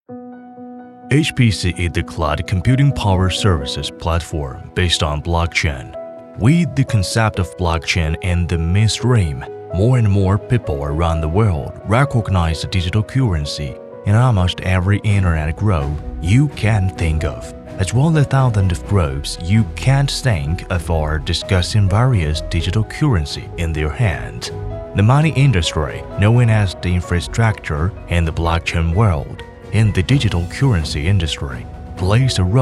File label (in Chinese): 男 英文宣传 大气